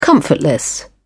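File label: "comfortless", British English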